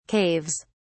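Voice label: "caves", British English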